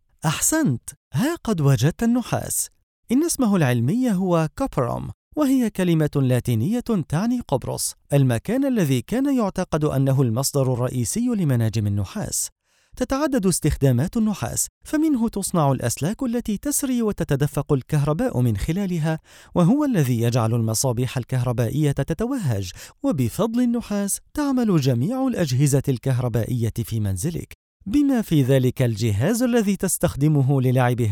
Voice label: eLearning Game

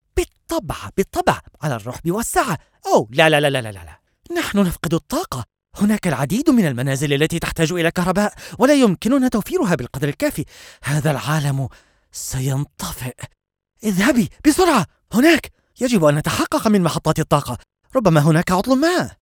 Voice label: E-learning Character

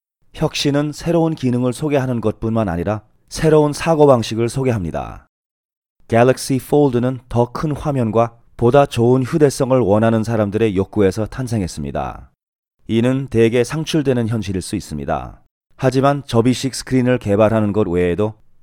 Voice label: korean; galaxyfold

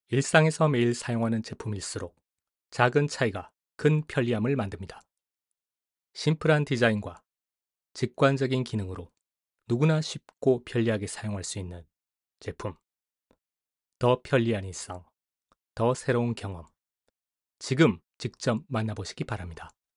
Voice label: voices sample Goods narration

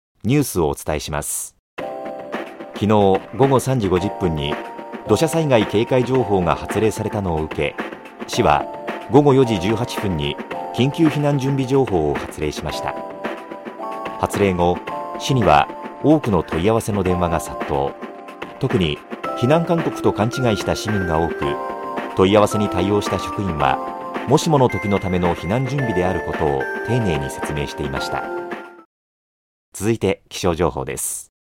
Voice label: news

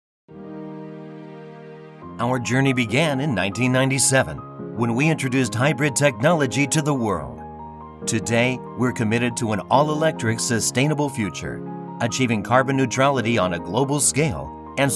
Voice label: standard tone; スタンダード; 日本語 アメリカ英語 ネイティブ

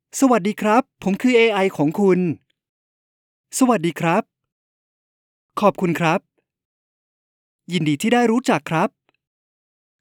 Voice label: Training AI Thai

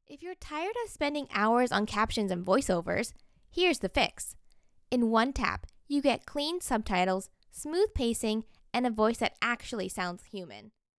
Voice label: EN Marketing Ad

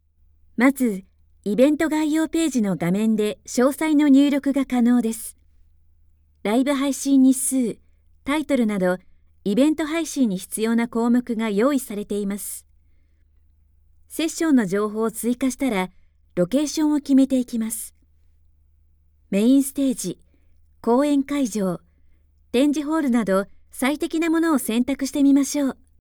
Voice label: e-learning

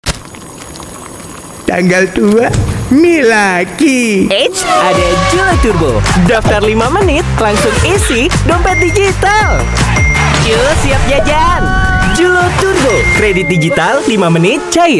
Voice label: Announcer; JuloTurbo Midori Sour

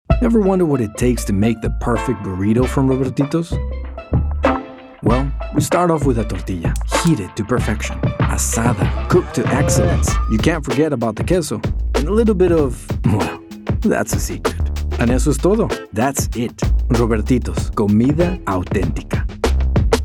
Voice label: restaurant Online Ad

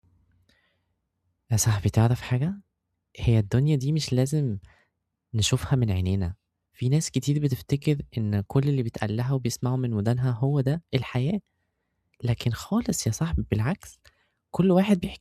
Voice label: egyptian arabic

